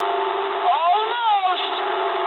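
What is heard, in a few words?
English bomb failure mood low; War Thunder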